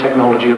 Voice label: LoFi Words, LoFi Vocals